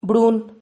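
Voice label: "brun", Spanish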